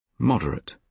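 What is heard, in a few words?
"moderate", British English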